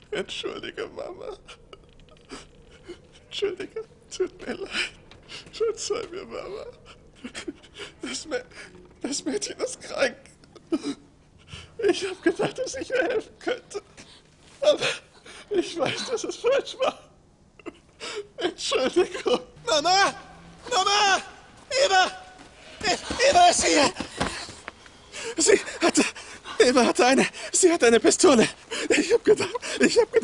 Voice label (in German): Synchron Emotion, German; Lip-Sync (Synchron); Jordskott - Synchron - Emotion